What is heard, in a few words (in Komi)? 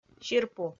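"сирпу", Komi, female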